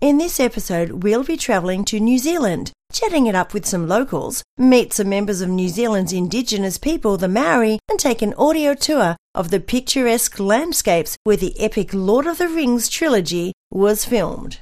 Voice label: new zealand